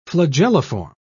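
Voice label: "flagelliform", British English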